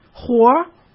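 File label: "活", Mandarin Chinese; chinese flashcards; hsk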